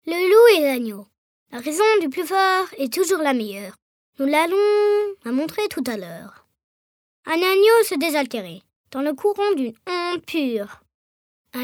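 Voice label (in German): French Schauspiel Poetisch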